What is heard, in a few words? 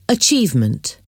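"achievement", English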